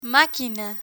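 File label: "maquina", Spanish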